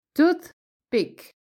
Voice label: "toothpick", english